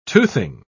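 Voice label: "toothing", British English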